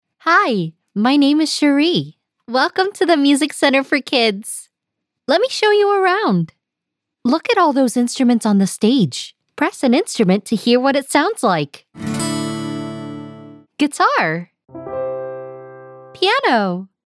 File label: Kids eLearning Music Center